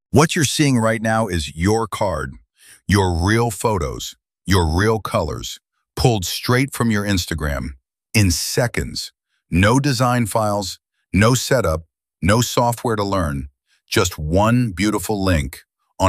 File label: narration